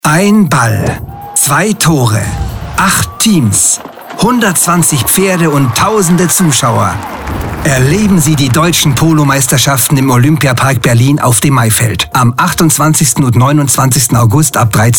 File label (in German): werbespot; hauptspot; Tonfabrik Berlin; Werbung Maifeldpolocup